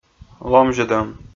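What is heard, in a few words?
"ломзьӧдӧм", Komi, male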